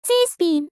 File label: "z spin", vocal; Techmino; miku